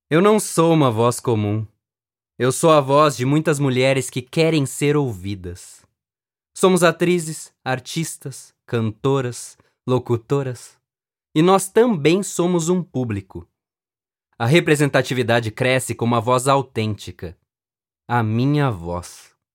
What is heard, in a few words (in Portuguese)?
Voz Comum